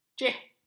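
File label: "ceh", Malay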